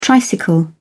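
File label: "tricycle", British English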